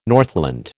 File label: "Northland", American